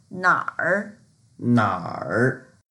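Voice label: "nar3", Mandarin Chinese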